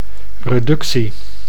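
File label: "reductie", Dutch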